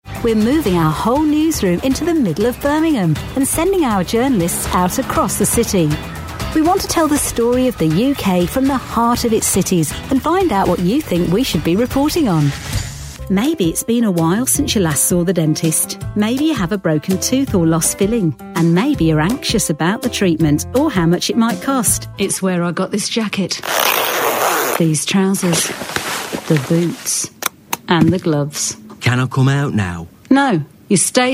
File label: authentic midlands, Warm Sexy Versatile Female Voice